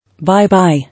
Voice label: "Bye-bye", English